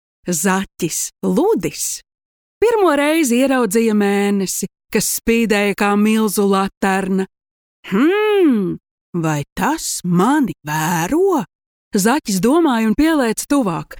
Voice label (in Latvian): Pasaka LV